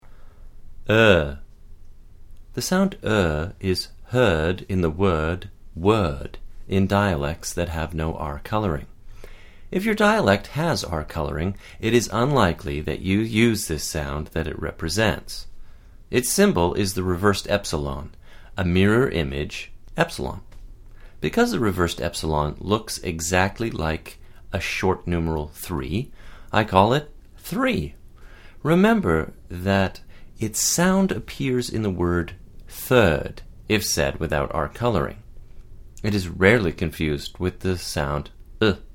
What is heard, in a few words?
non-R nurse